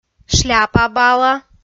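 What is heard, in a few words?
"шляпа бала", Komi, female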